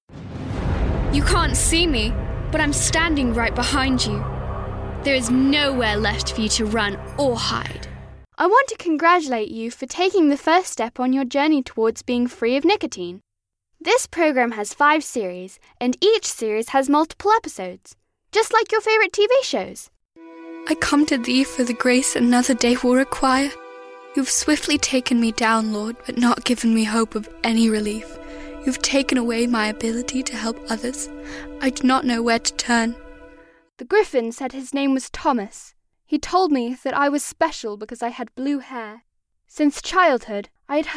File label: Narration British